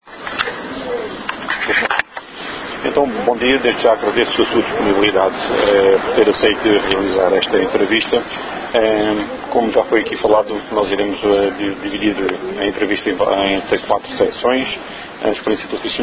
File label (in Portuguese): Entrevistado